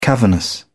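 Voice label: "cavernous", British English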